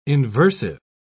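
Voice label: "inversive", British English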